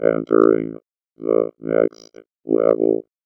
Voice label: VOICEFX ELCTR 140; Techno2